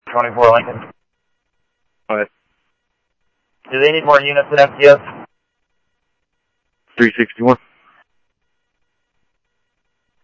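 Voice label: Phoenix police department, scanner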